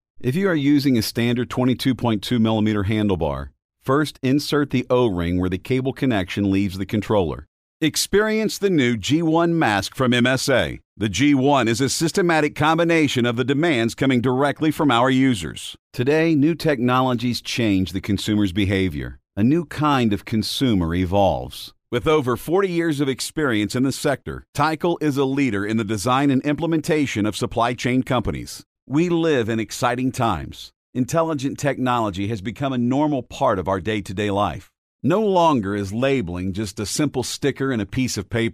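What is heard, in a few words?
US English E-Learning; GoLocalise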